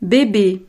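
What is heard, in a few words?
"bebe", French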